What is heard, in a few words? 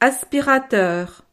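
"aspirateur", French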